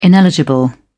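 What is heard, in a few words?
"ineligible", British English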